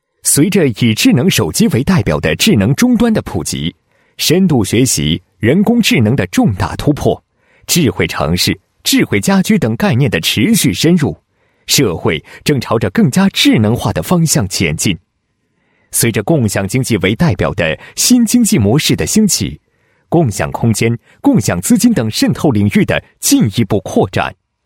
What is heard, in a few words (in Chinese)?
男 宣传片 IT领袖峰会开场宣传片